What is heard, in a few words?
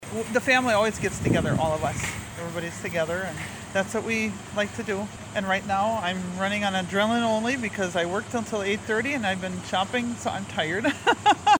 Female shopper